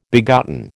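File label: "begotten", American English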